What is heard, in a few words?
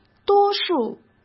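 "多数", Mandarin Chinese; chinese-flashcards; hsk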